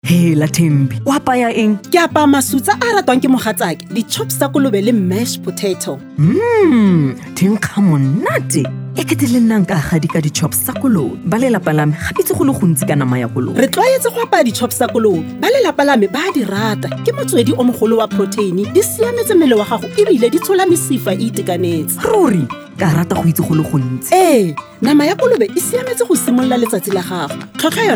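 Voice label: Setswana conversation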